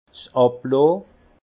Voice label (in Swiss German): "abloh", alsace; vin